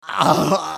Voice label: engineer pain severe, hurt; hl2dm